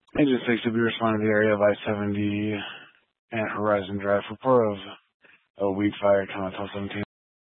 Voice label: Fire Dispatch

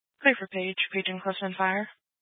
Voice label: Fire Department Dispatch, scanner; Grand Junction

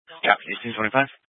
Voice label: Sheriff Dispatch; Mesa County

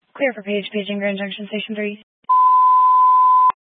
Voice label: Fire Dispatch, scanner

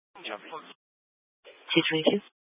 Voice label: Sheriff Dispatch; Mesa County

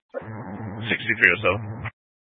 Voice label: Sheriff Dispatch; Mesa County

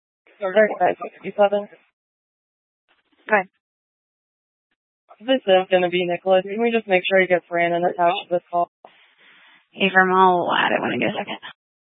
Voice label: Police Dispatch; Police 1 Dispatch

